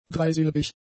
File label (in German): "dreisilbig", German; adjectives